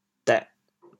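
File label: "dêh4", Teochew, chaoyang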